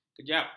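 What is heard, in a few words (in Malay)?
"kejap", Malay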